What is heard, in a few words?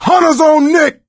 hunter pounced, survivor voice; Left 4 Dead 2; coach